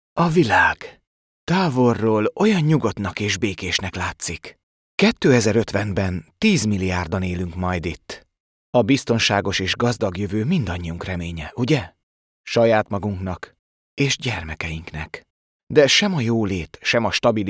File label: Imagefilm, HUN